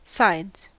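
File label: "sides", American English; pronunciations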